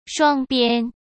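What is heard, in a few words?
"双边", Mandarin Chinese; hsk; chinese-flashcards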